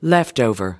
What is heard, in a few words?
"leftover", us